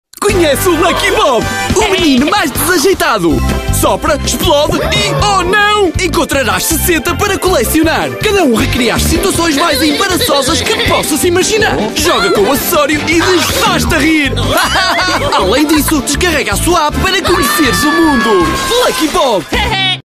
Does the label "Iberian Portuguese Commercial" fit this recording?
Yes